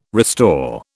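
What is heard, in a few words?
"restore", British English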